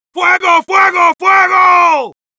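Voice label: Heavy auto on fire, Spanish; ¡Fuego, fuego, fuego!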